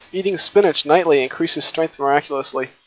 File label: Enhanced Speech White Noise LogMMSE 0dB SNR